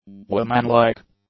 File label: "womanlike", english; adjectives